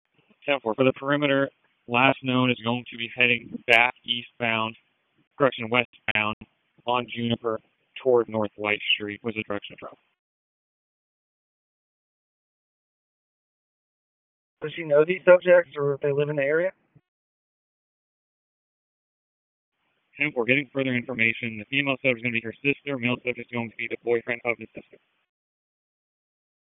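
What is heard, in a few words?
Police Disp, radio; Wake Forest; p25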